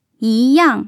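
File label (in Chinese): "yīyàng", Mandarin; tone changes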